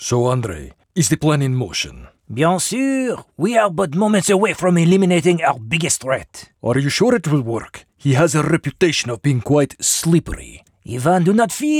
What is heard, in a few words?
Multi accent